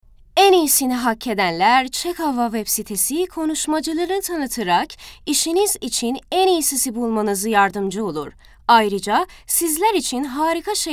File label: turkish